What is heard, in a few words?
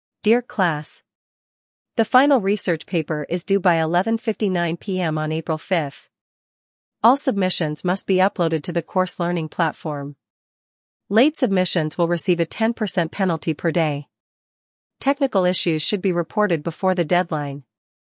announce